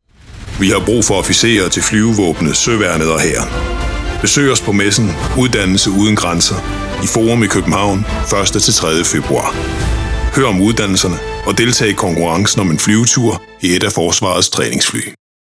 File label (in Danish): Deep Danish Voiceover